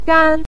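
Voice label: "gan" neutral tone, Mandarin Chinese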